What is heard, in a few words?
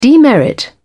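"demerit", British English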